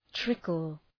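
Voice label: "trickle", English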